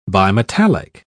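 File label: "bimetallic", English, uk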